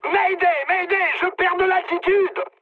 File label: aircraft destroyed mood high, speech fr; War Thunder